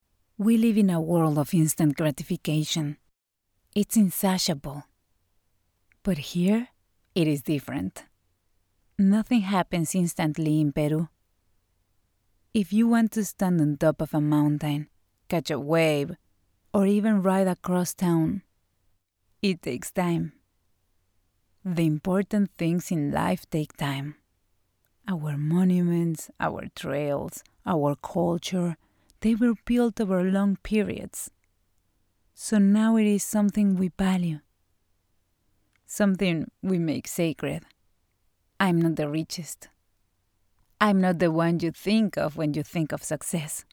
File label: english narration